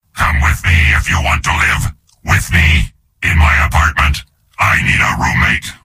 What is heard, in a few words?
soldier robot